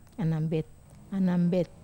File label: "anambet", Wamesa